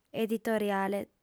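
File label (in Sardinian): "editoriale", female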